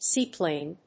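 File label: "seaplane", English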